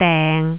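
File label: "deng6", Cantonese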